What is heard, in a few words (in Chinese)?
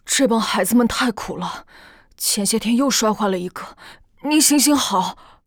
幼年